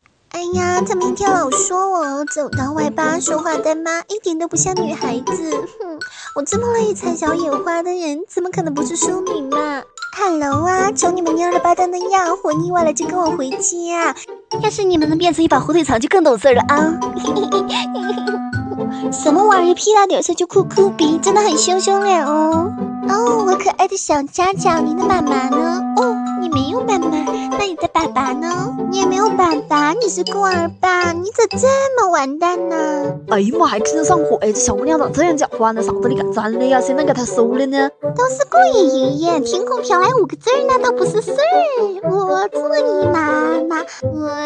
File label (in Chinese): 搞笑解说配音东北话